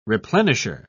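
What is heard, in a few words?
"replenisher", British English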